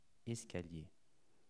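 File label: "escalier", French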